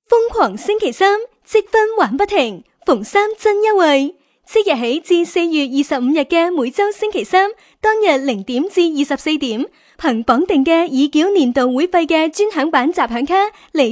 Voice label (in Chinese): 女 粤语 促销试听